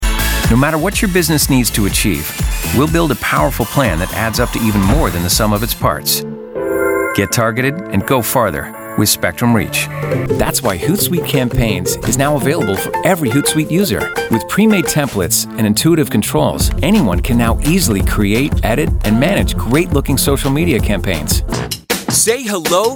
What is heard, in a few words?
Corporate Narration